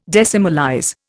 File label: "decimalize", Indian